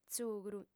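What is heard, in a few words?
"tzugru", Sardinian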